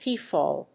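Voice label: "peephole", English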